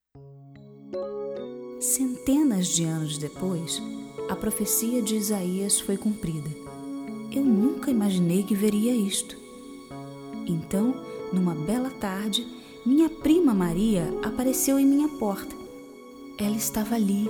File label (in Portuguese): Narracao